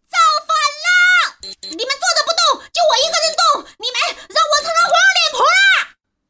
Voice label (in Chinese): 女 角色 黄脸婆 超泼辣